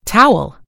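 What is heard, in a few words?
"towel", British English; gcompris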